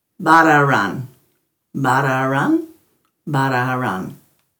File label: "barraaran", Dhurga